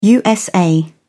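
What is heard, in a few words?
"usa", British English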